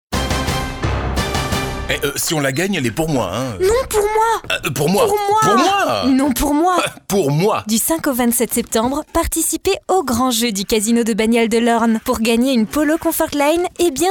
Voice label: spot radio voix duo jouee; CASINO

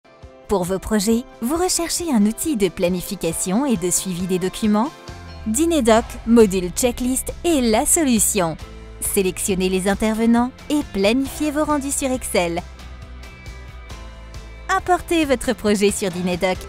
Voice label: Institutionnel Voix Off femme; Dynedoc

